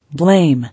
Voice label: "Blame", English